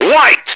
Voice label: white, radio, male